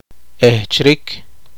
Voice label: poqomchi; corresponder